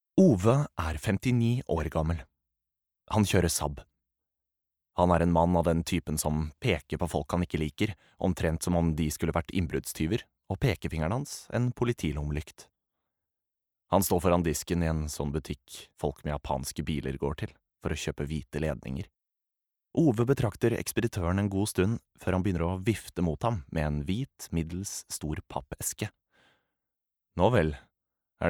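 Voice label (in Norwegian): lydbok, Norwegian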